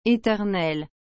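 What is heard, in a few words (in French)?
"eternel", French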